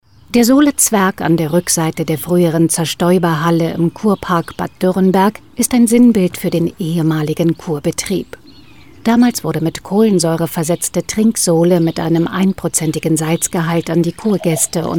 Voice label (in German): Sprecherin; Gartenträume Homepage Erklärbuttons Bad Dürenberg; Solezwerg